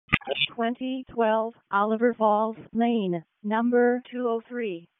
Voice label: EMS ALERT; wake; p25